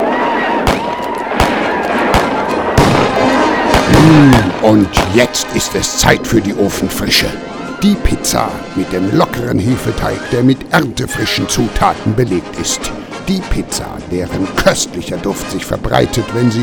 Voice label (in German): Werbung; Ofenfrische